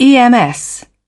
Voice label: "ems", British